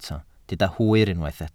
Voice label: "hwyr", Welsh

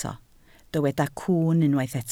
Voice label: "cwwn" Welsh

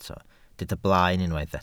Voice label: "blaen", Welsh

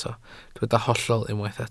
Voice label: "hollol" Welsh

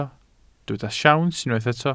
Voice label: "siawns", Welsh

